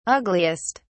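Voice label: "ugliest", British English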